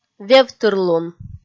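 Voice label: "вевтырлун", Komi, female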